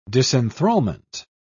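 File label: "disenthralment", British English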